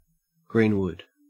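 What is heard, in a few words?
"greenwood", Australian English; pronunciations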